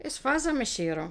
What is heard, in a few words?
Female conversational ECrete; esfazame shiro